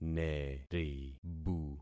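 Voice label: "neribu", concatenated syllables; Affixing experiment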